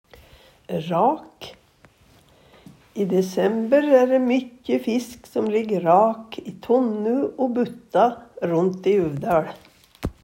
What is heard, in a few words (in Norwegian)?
"rak", Numedalsmål; Då er fisken rak.